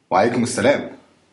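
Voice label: hello, Egyptian Arabic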